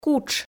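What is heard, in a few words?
"кутш", Komi, female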